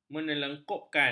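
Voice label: "menelangkupkan", Malay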